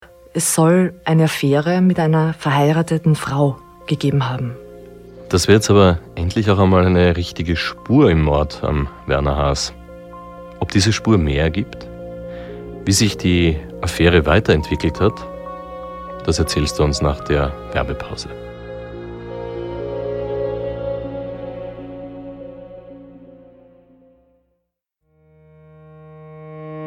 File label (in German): werbung; dunklespuren